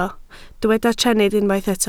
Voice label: "trennydd", Welsh